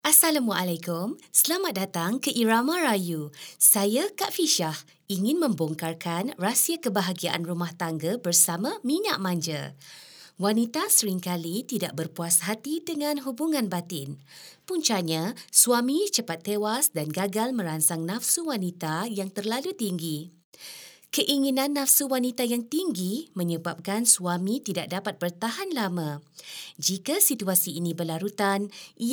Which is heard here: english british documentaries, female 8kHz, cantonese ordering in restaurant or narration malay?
narration malay